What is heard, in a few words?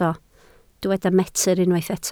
"metr", Welsh